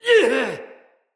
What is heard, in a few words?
Attack Heavy, Soldier Male, Battle; Dendrit